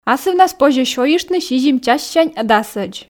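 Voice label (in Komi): "асывнас позьӧ сёйыштны сизим чассянь дасӧдз", Komi, female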